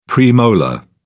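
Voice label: "premolar", British English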